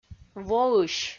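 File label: "воысь", Komi, female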